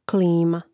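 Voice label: "klima", Croatian; Mrežnik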